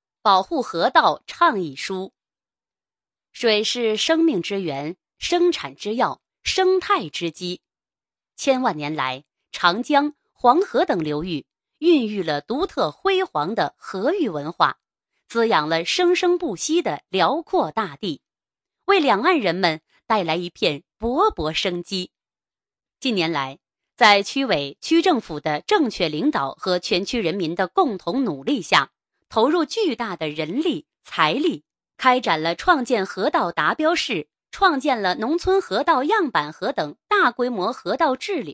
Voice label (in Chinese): 女 公告 公告倡议类